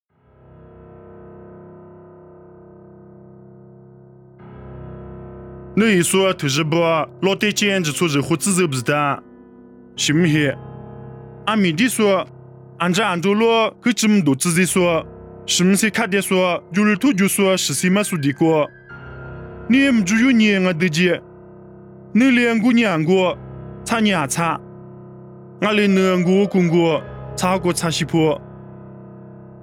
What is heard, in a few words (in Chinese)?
凉山彝语; 地中海老底嘉; nuosu